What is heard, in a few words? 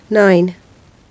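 "nine", English, GB, female; nɑin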